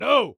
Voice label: "No", Human Male Angry